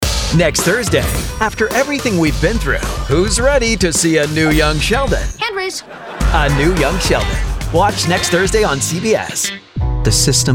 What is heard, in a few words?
promo men